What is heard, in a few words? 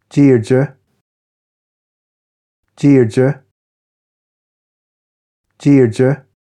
"Deirdre" Irish names for girls